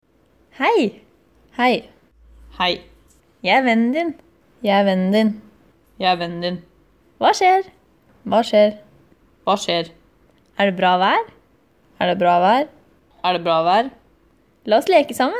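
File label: Norwegian woman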